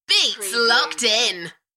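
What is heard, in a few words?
UK female, Enthusiastic, voice drop; Beats locked in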